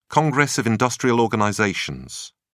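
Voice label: "congress of industrial organizations", British English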